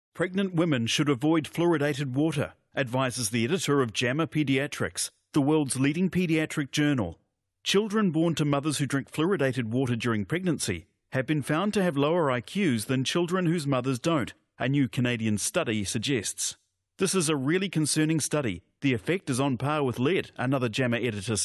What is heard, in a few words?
Radio Ad